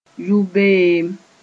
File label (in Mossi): "yʋ-beem", moore